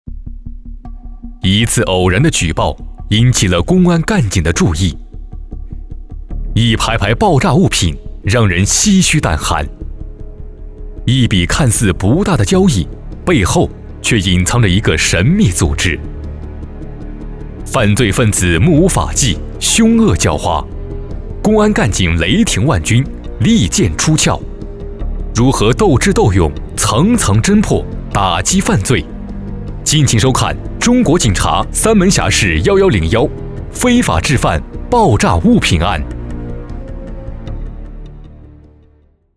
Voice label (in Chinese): 男, 片花, 法制栏目片头